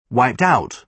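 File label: "wiped out", British English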